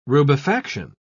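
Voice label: "rubefaction", British English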